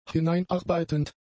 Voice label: "hineinarbeitend", German; adjectives